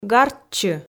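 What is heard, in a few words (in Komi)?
"гартчы", Komi, female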